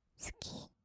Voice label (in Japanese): "すき…", kawaii voice; 1200 kawaii voice!!; 色々なワンショット